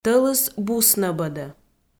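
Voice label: "тӧлыс бус нӧбӧдӧ", Komi, female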